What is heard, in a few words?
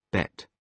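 "bet", British English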